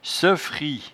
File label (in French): "seufri", patois jura